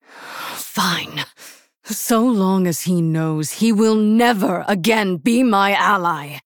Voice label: Human Female